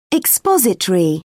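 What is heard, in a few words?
"expository", British English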